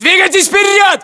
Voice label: order move forward, ru